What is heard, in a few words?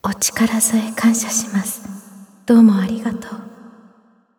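victory, Japanese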